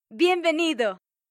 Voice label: bonjour, voix